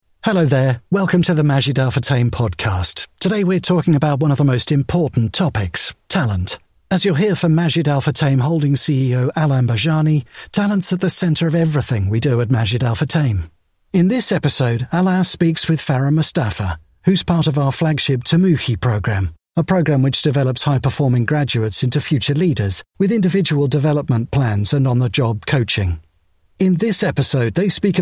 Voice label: english british podcasts; english british voice actors